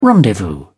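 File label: "rendezvous", English, British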